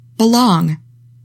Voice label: "BELONG", english; 3000 words

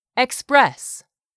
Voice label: "express", English; 3000 words

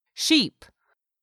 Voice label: "SHEEP", English; 3000 words

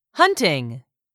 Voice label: "hunting", English; 3000 words

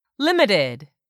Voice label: "LIMITED", english; 3000 words

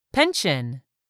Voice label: "PENSION", English; 3000 words

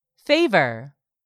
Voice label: "favor", English; 3000 words

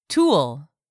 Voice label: "TOOL", english; 3000 words

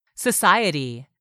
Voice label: "society", english; 3000 words

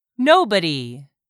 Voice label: "nobody", English; 3000 words

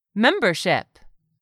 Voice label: "membership", English; 3000 words

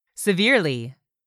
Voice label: "severely", English; 3000 words

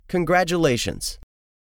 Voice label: "congratulations", english; 3000 words

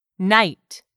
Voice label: "night", English; 3000 words

